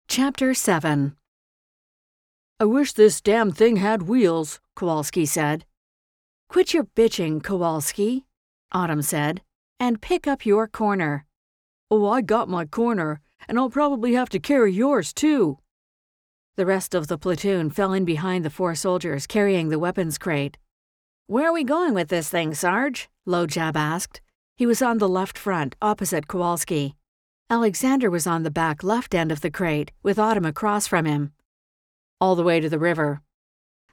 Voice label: english canadian audiobooks; Canada

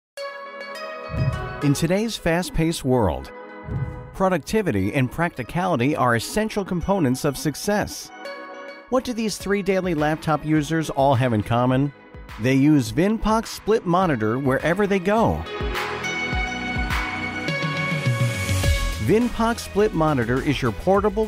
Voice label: english american training e-learning

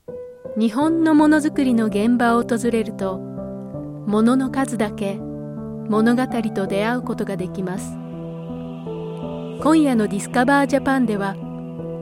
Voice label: japanese narration

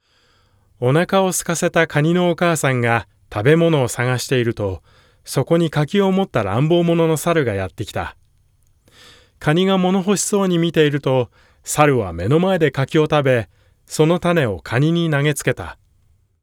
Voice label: japanese narration

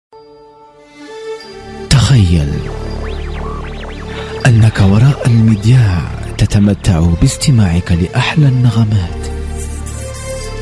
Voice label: arabic commercial

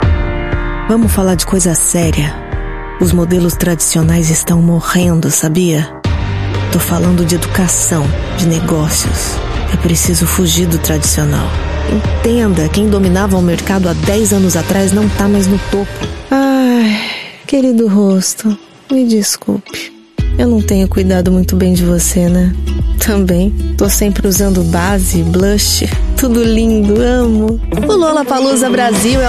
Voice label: portuguese brazilian commercial

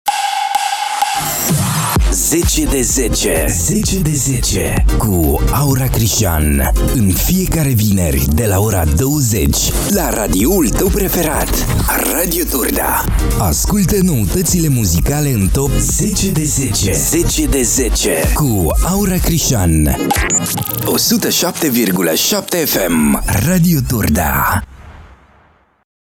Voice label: romanian radio imaging

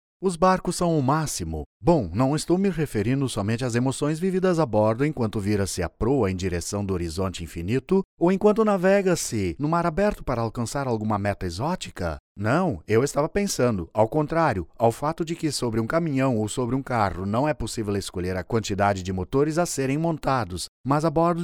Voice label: portuguese brazilian tv shows

